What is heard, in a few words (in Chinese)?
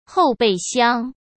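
"后备箱", Mandarin Chinese; hsk; chinese-flashcards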